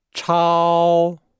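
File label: "chao", Chinese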